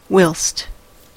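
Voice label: "whilst", American English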